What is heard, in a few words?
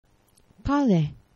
"pale", Esperanto; geneva